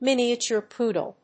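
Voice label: "miniature poodle", English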